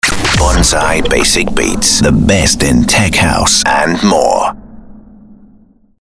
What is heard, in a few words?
basic beats liner; bonzai